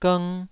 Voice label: "gng2", Taiwanese syllable